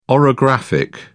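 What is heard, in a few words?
"orographic", British English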